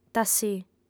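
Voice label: "tassì", female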